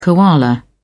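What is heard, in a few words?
"koala", English, British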